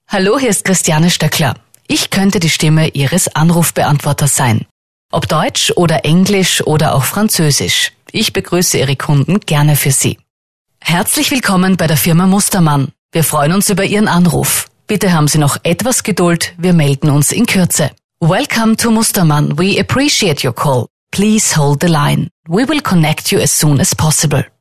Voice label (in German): Sprecher Telefonansagen